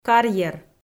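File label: "карьер", Komi, female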